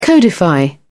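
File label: "codify", English, British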